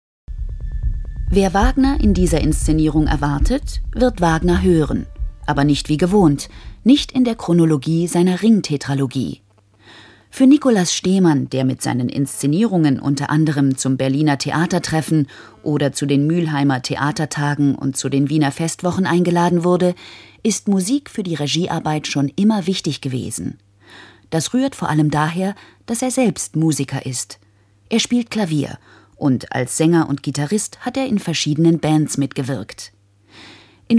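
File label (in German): erzählerin; dlr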